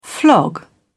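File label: "flog", British English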